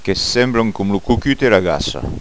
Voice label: "cocut agassa", gasconha

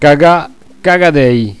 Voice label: "caga cagadeir", Gascon

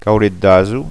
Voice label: "caulet d aso", gasconha

